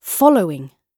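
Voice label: "following", British English; Oxford Audio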